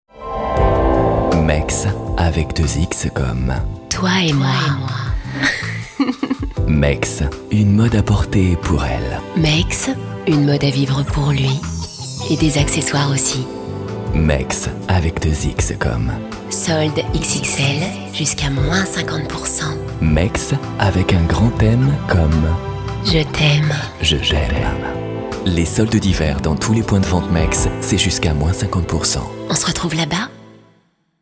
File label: charming, french voice over; Mexx